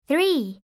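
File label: "three", English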